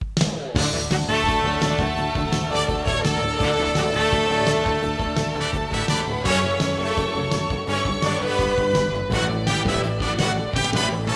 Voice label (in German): werbeansage